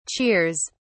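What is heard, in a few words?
"cheers!", British English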